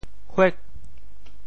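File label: "huek8", Teochew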